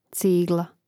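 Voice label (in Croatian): "cigla", Hrvatski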